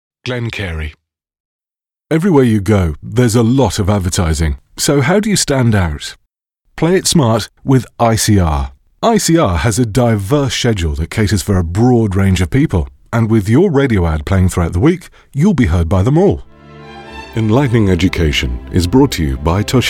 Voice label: commercials